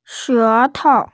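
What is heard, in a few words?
"she tou", Mandarin Chinese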